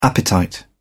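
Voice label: "appetite", British English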